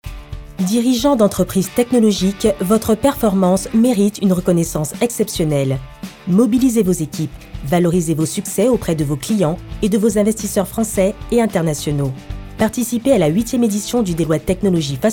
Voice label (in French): droit posé neutre informatif, voix off; DELOITTE TECHNOLOGIE